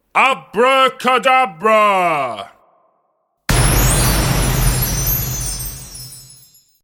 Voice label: Magician's male voice saying ‘Abracadabra’ with magical explosion.; Recorded Voice